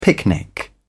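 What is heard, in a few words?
"picnic", British English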